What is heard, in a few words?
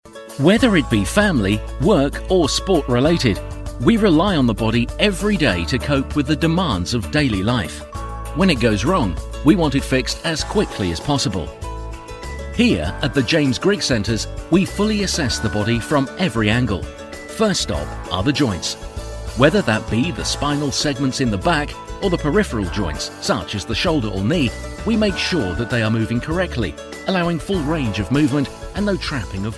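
English, United Kingdom, male, corporate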